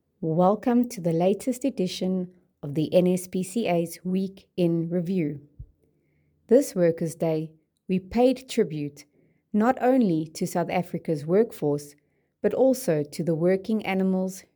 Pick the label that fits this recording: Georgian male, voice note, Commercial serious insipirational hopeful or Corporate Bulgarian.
voice note